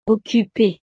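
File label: "occupée", French; estados animo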